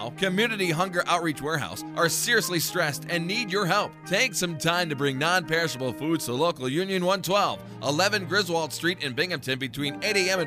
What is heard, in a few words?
Holiday Radio Commercial; UA Local 112; Binghamton